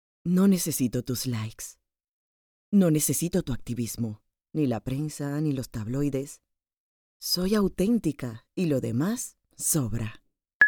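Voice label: Latin American Spanish Commercial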